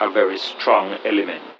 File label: LoFi Phrases, LoFi Vocals; Vengeance Samples